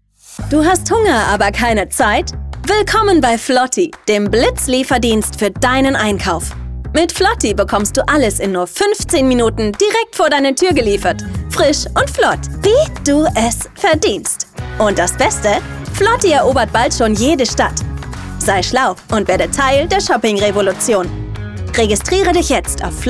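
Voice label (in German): Werbung, Sprecherin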